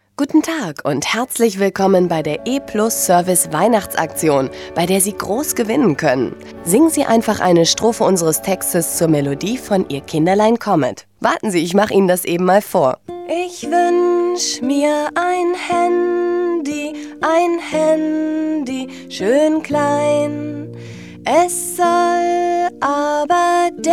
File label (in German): deutsch, Sprecherin, telefonansagen